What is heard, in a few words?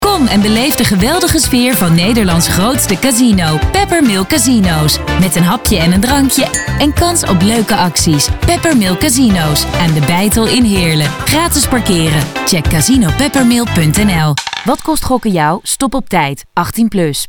radiospot; Peppermill Casino